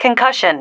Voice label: "concussion", female

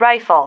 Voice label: "rifle", female

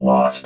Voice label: "lost", robot

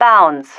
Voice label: "bounds", female

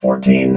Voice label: "fourteen", robot vox

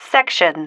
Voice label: "section", female

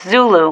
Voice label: "zulu", female

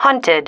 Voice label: "hunted", female

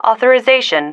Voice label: "authorisation", female

